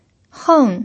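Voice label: "heng" fourth tone, pinyin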